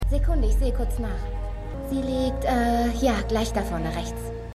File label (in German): Krankenschwester, Stimmproben; The Flash